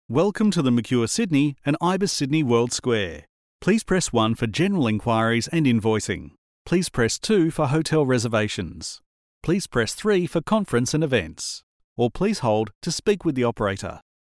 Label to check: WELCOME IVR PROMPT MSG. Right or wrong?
Right